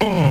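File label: "uh", male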